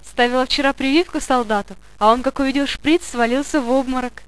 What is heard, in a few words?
monologue; svencoop; paranoia; medic